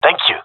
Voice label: thankful, gendarmerie male

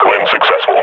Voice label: announce kill zombie, combine; vo

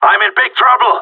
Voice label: radio taking fire; Counter Strike Model Pack; CS:GO; gsg9

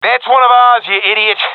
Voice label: sees friend killed, professional epic; vo; Counter Strike Model Pack; CS:GO

